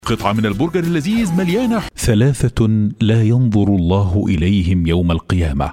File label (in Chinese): 阿拉伯语男 深沉嗓音